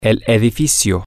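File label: building, Spanish, male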